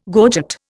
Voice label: "gorget", Indian

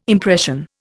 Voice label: "impression", Indian

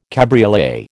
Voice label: "cabriolet", American

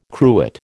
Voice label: "cruet", American

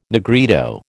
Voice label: "Negrito", American

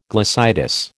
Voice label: "glossitis", American

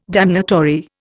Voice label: "damnatory", Indian

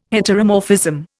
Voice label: "heteromorphism", Indian

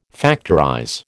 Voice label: "factorize", American

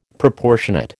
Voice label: "Proportionate", American

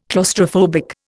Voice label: "claustrophobic", Indian

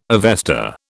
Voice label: "Avesta", British

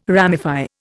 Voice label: "ramify", Indian

